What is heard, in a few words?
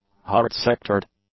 "hard-sectored", English; adjectives